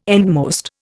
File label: "endmost", Indian